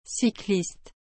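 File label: "cycliste", French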